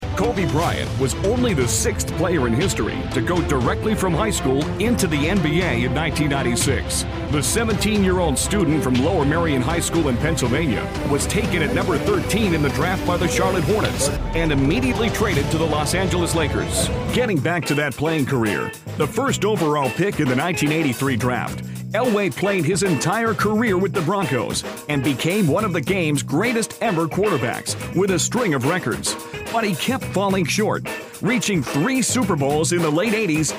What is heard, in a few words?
In Show Narration